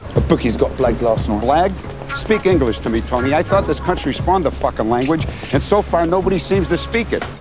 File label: english; halflife